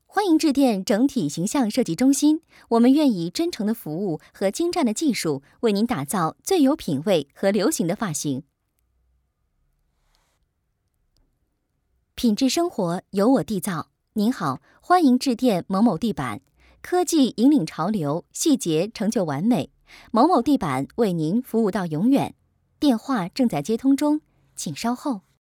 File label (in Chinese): 彩铃